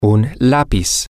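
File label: pencil, Spanish, male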